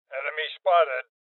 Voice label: Voices Enemy Spotted Male Old; rebel cops 2019